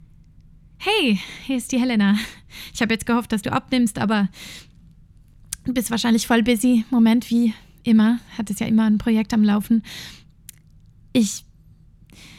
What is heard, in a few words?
Deutsch Monolog